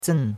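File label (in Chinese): "zen4" Chinese; Cours-de-Chinois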